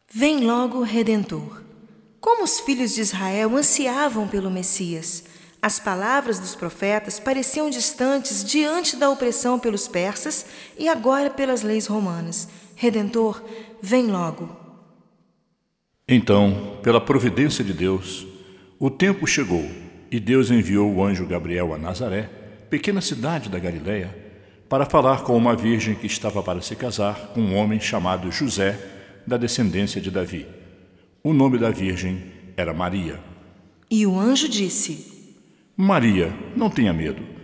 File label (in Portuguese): Narrações